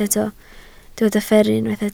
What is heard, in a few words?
"fferru", Welsh